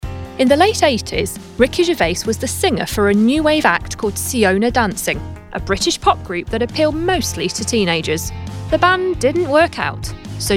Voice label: Narration